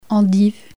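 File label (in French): "endives", French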